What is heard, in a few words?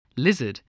"lizard", spelling